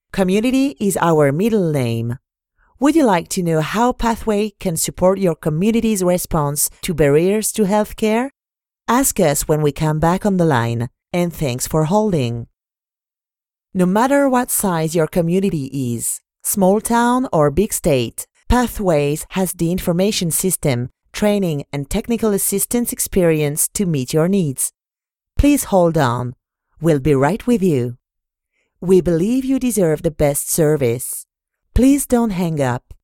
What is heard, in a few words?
English waiting message call